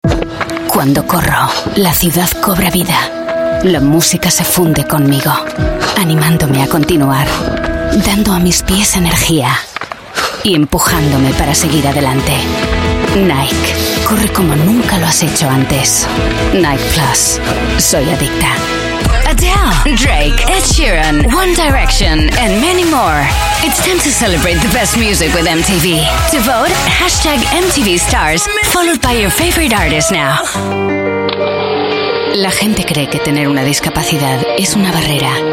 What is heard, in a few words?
European Spanish Commercial Bilingual